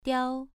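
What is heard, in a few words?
"diao" Mandarin, second tone, pronunciation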